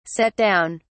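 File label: "set down", British English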